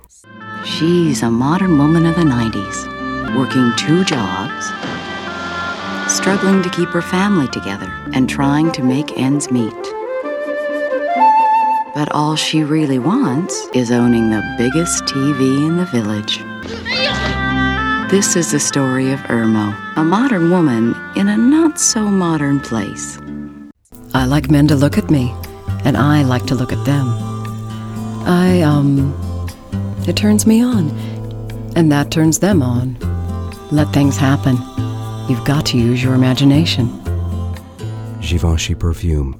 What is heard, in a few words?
Voice Over Demo